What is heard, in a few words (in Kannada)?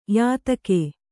"ಯಾತಕ್ಕೆ", Kannada